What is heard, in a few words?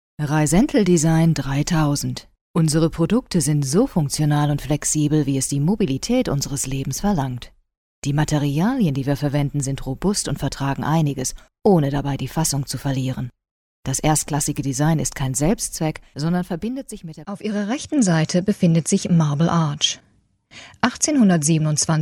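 German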